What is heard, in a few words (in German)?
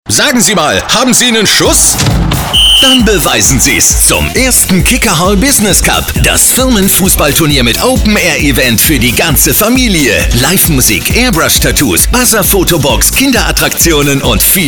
Radiospot; Wagler Marketing; Kicker Business Cup Leipzig